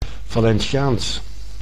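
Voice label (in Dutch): "Valenciaans", Dutch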